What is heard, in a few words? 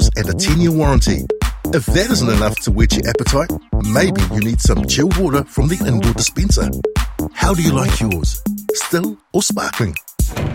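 English New Zealand, commercial, male; voice demos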